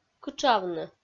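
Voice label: "кытшавны", Komi, female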